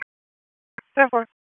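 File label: Sheriff's Office Dispatch 1, p25; WSO DISP 3; wake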